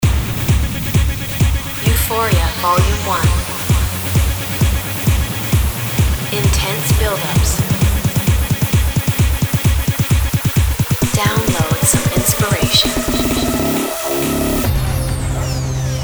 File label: euphoria vo